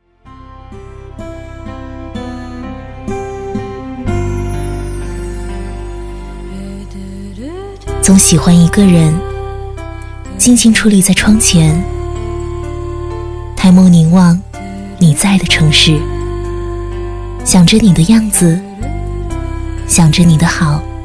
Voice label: 女 旁白 微电影女声旁白; 国语女声配音; 多风格录音

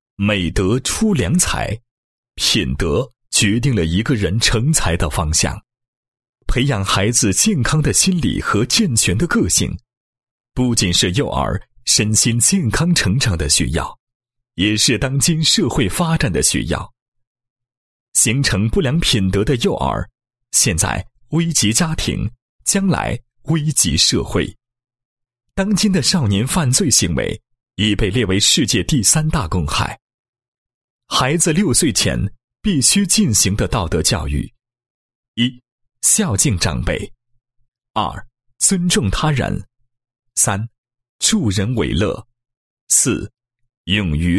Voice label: 男 教育解说 6岁前必须进行的道德教育配音

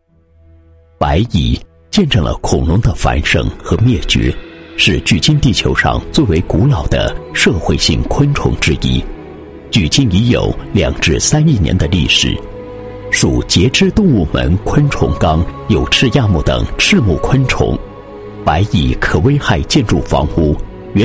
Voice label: 男 专题 白蚁叙述介绍 亲和舒缓, 国语男声配音

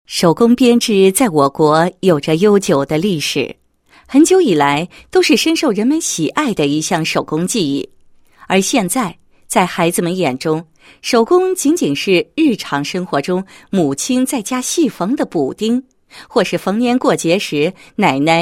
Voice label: 女 学校 校园社团 手工编织, 配音案例

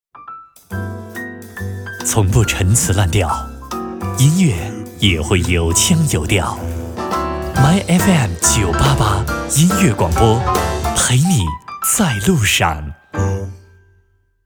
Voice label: 男 电台 时尚 磁性

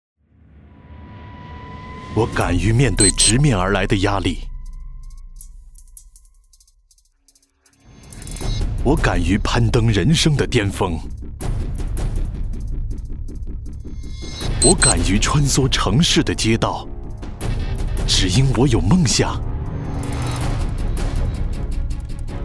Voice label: 男 专题形象片 消防 深沉大气

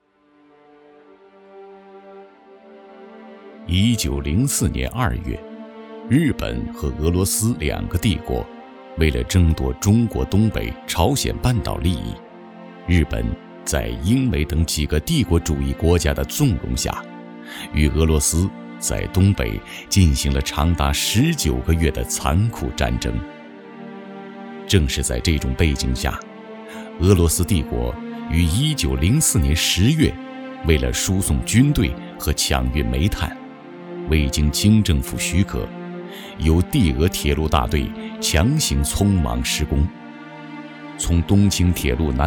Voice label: 男 厚重历史片配音

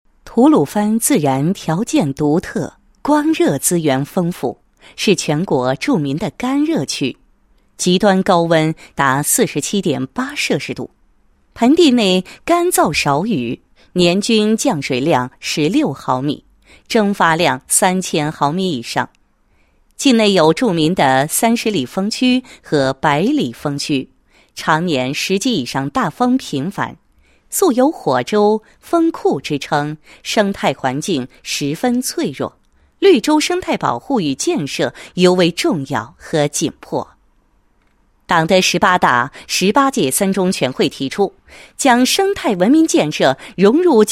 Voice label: 女 解说词 实施绿色发展战略建设美丽生态吐鲁番, 配音案例

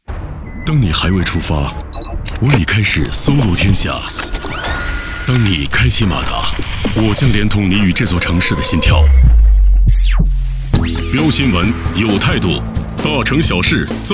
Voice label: 电台频宣 科技时尚; 畅行早高峰

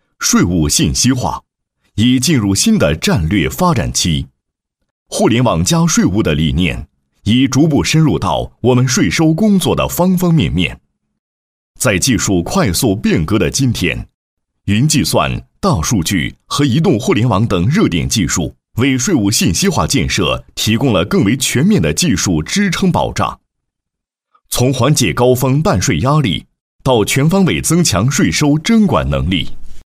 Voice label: 男 工作汇报; 石嘴山税务微信平台介绍

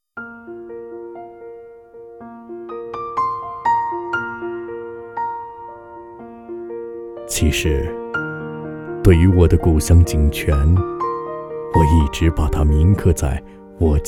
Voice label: 内心独白; 故乡篇

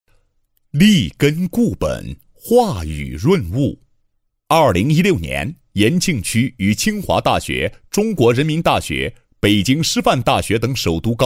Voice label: 男, 政府教育工作; 延庆区与首都高校联合开展艺术实践育人新探索

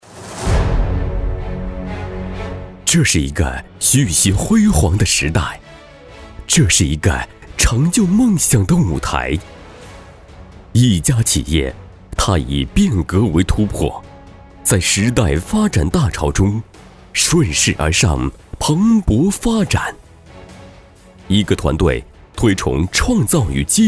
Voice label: 男 专题 激昂 企业; 武汉企业

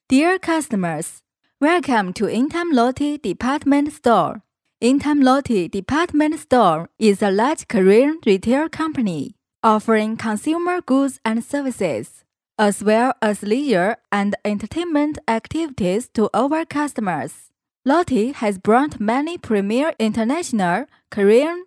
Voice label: 英女 英语广播

